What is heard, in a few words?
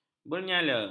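"bernyala", Malay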